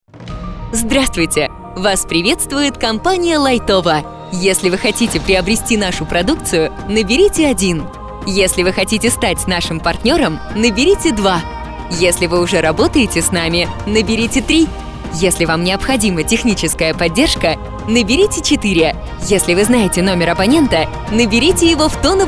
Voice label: IVR; Laitovo